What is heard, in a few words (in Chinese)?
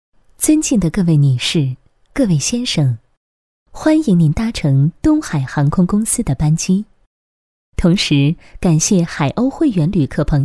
航空公司广播词; 东海航空公司; 东海航空公司广播词